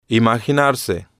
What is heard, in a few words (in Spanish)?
"imaginarse", Spanish